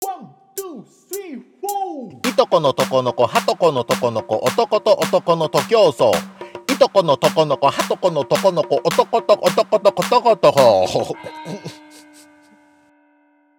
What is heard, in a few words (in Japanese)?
早口言葉リズム（中級編）